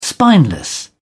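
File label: "spineless", British English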